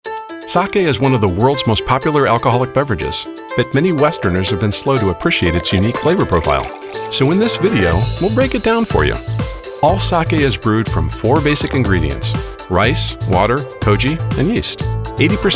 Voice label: Narration